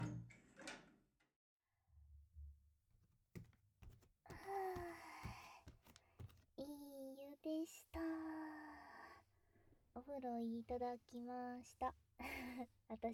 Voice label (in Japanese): お布団で密着いちゃいちゃトークとマッサージ